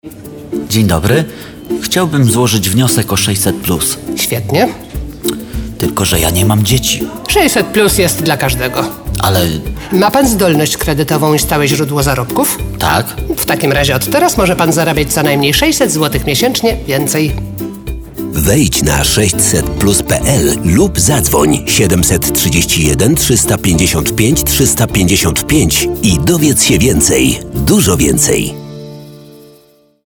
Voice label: Radio